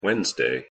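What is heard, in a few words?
"wednesday", English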